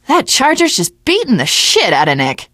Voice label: teengirl, voice; Left 4 Dead 2